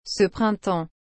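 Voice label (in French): "ce printemps", French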